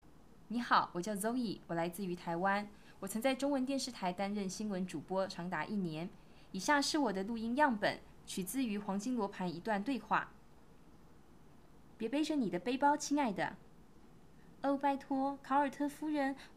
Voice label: voice over sample